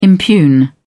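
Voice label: "impugn", British English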